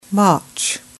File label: "march" English